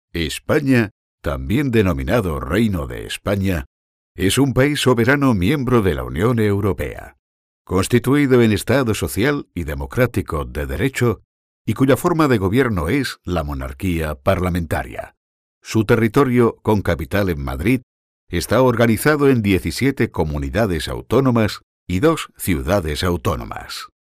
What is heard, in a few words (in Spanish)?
spanish